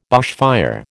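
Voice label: "bushfire", American English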